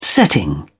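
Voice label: "setting", British English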